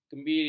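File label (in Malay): "kembiri", Malay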